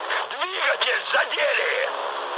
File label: damaged engine, mood high, speech ru; War Thunder